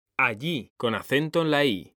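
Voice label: "allí", Spanish, male; mekanta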